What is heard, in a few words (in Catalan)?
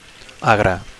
"agre", Catalan; bitter / sour